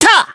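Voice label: Voice attack, Japanese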